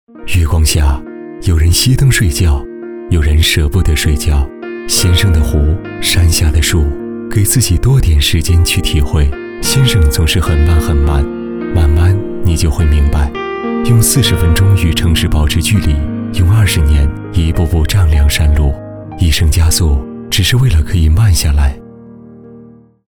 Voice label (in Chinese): 广告 温暖讲述